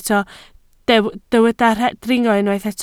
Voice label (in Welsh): "dringo", Welsh